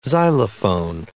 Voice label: "xylophone", English

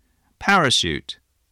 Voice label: "parachute", English, voice

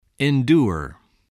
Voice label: "endure", spelling

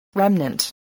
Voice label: "remnant", spelling voice

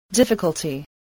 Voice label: "difficulty", english spelling

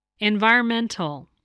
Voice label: "environmental", voice, english

